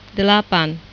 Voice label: "delapan", bahasa